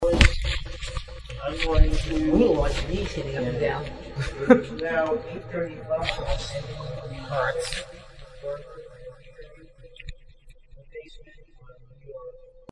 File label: 2 words filtered